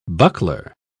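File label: "buckler", English, British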